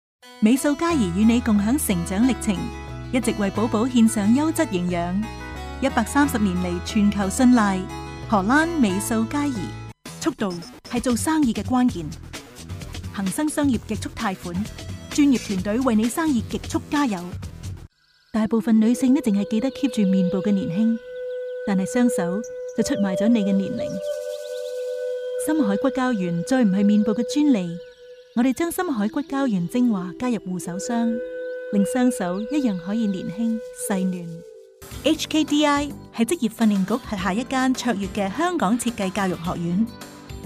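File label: hong kong cantonese female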